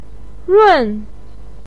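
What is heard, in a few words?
"run4", Mandarin Chinese, pinyin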